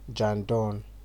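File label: "jandon", Nigerian Pidgin, male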